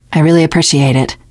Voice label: thanks, teen girl; source engine; left 4 dead 2; survivor

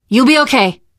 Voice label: revive friend, survivor voice teen girl; Left 4 Dead; Source Engine; video games

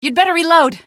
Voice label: you'd better reload, female; Source Engine; video game; npc

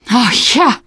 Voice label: hurrah, teen girl, survivor voice

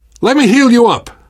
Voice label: heal other, left4dead2 survivor voice namvet; source engine

